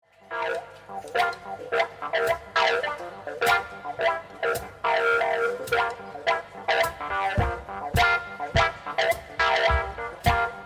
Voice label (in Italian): spot; ACROBAX